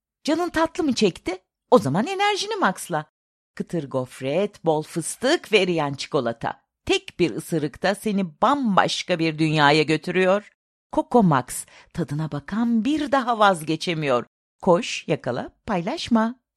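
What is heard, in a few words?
cikolata enerjik, Turkish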